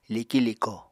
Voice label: "liki-liko", Apatani